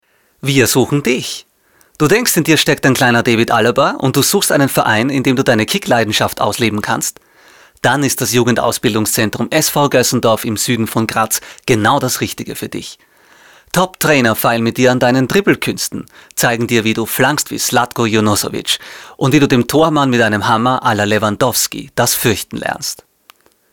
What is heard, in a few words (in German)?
Werbung; SV Goessendorf